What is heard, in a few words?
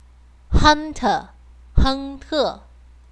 Hunter in chinese